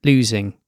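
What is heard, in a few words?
"losing", British English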